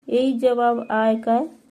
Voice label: Yehi jawab aay ka, Halbi; Is it the answer?